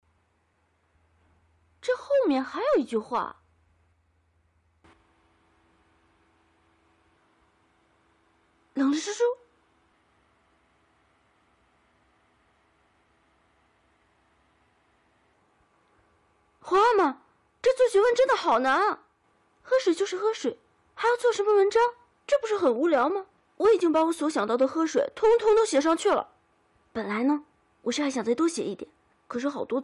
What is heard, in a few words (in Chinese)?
女 模仿; 模仿赵薇小燕子